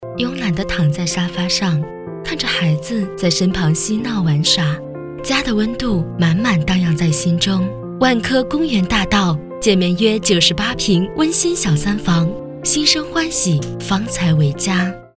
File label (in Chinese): 广告 温馨 优雅; 万科公园大道